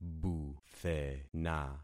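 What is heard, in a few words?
"buthena", concatenated syllables; Affixing experiment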